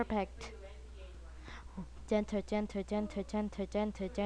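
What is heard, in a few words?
"gentle", spoken word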